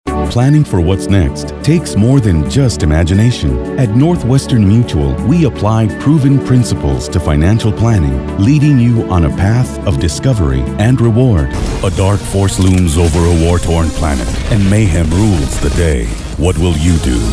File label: commercial